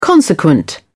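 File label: "consequent", British English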